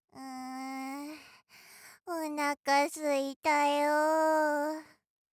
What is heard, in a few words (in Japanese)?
システムボイス